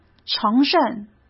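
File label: "承认", Mandarin Chinese; hsk; chinese-flashcards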